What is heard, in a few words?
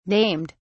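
"named", British English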